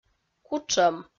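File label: "кутшӧм" Komi, female; kpv audio dict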